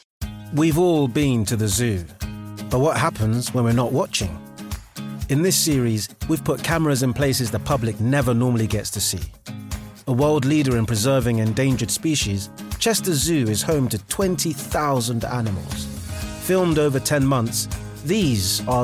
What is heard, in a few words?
Narration